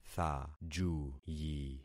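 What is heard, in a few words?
"thajuyi", concatenated syllables; Affixing experiment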